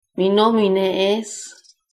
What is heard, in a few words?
my name is, interlingua; Mi nomine es ...